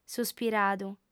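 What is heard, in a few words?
"suspiradu", Sardinian, female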